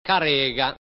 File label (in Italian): "carêga", genovese; ka're:ga/ (sedia)